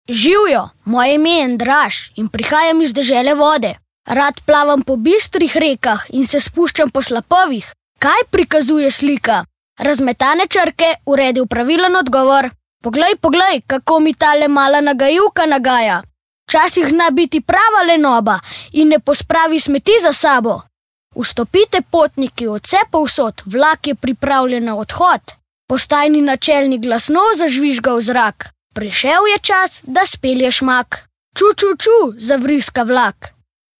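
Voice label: slovenian male kid character; voice demos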